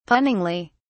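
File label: "punningly", British English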